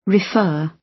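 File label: "refer", British English; Time, history; Thời gian, lịch sử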